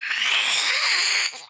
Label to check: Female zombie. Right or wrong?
Right